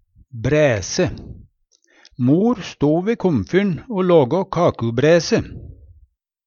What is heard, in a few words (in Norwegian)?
"bræse", Numedalsmål; Substantiv hokjønn; Hushald, mat, drikke